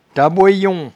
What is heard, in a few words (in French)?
"taboeyon", patois jura